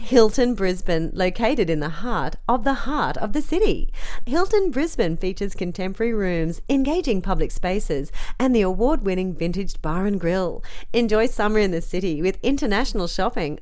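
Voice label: corporativo, English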